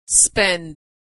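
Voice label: "spend", American English; verb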